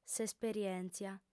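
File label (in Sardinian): "s'esperièntzia", female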